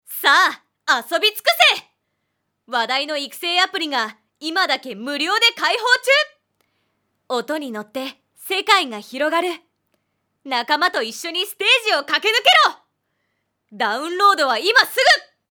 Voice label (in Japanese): ナレーション アプリゲーム広告